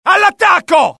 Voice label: alert, dialogue IT; mohaa; attack